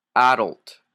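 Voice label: "adult", Canadian English; pronunciations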